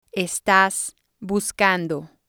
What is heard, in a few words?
"estas buscando", spanish